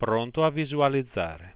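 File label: Italian ready to display, Voice Sounds; Samsung SGH-i310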